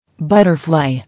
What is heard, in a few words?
"Butterfly", English